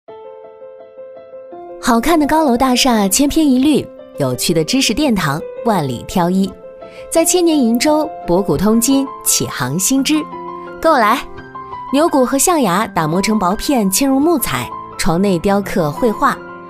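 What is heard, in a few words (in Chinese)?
女国大音-活泼文旅; 博古通今鄞州; 文旅配音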